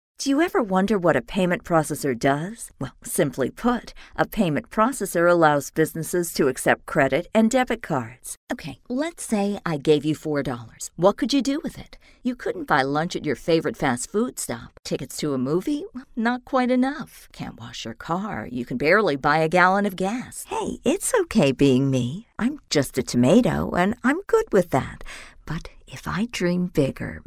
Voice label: english us and canada female e learning